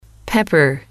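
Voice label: "pepper", English, female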